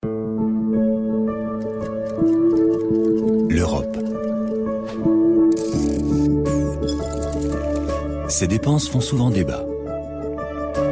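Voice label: French, male, narration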